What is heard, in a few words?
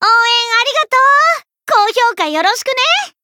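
win, Japanese; ciel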